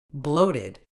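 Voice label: "bloated", British English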